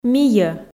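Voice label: "мийӧ", Komi, female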